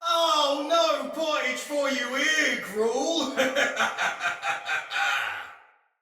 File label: See Player, Soldier Male; Battle; Barks; Dialog; Sinecure Soldier Male B